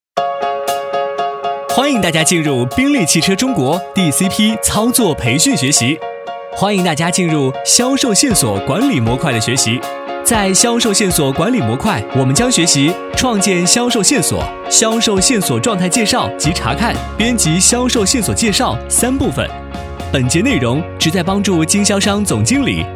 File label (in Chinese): 男 课件解说; 宾利汽车中国